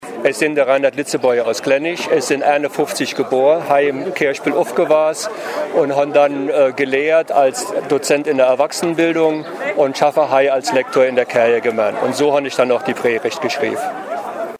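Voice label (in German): stellt sich vor, Hunsrück; Kleinich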